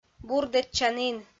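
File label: "бурдӧдчанін", Komi, female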